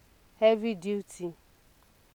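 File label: "heavy duty", Nigerian Pidgin, female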